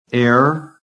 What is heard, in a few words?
"AIR", american